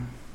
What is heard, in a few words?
"claw", Chikunda